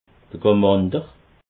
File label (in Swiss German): "gamander", alsace